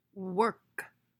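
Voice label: "Work", English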